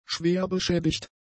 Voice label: "schwerbeschädigt", German; adjectives

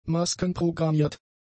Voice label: "maskenprogrammiert", German; adjectives

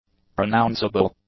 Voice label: "pronounceable", english; adjectives

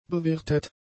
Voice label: "bewirtet", German; adjectives

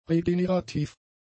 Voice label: "regenerativ", German; adjectives

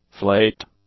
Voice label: "flaked", english; adjectives

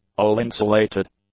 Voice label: "all-insulated", English; adjectives

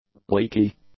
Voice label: "leaky", english; adjectives

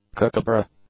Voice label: "Kookaburra", English; nouns

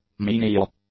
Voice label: "menial", english; adjectives

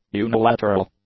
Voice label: "unilateral", english; adjectives

